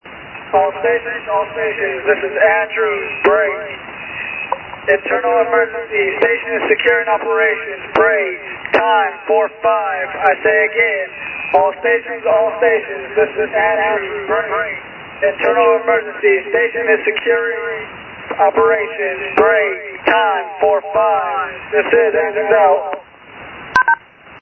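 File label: Internal Emergency, HF Radio; Andrews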